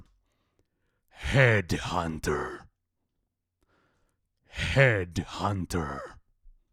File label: Announcer